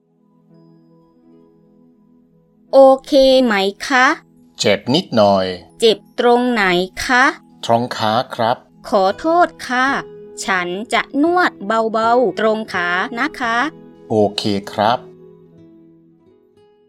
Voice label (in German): dialog; thai massage